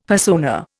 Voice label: "persona", Indian; Dictionary